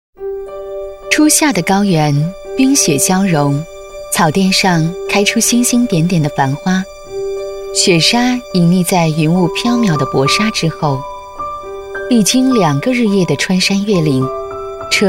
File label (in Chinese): 女 专题 旁白 景点